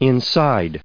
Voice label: "inside", en us

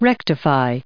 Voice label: "rectify", en us

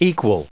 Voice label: "equal", us

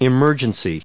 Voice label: "emergency", us

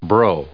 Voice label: "bro", en us

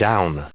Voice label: "down", en us

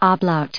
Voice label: "ablaut", us

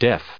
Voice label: "deaf", en us

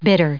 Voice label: "bitter", en us

